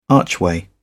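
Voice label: "archway", English, British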